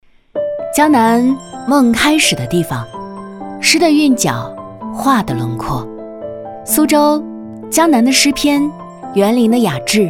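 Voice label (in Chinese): 女 VLOG旅拍自然轻松年轻温柔, 国语配音; 房琪风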